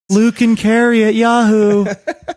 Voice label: saying sing songy manner